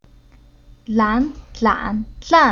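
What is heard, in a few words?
"Lan", Chinese syllable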